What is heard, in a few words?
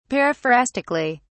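"periphrastically", British English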